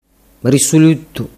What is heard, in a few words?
"risuluttu", dialettu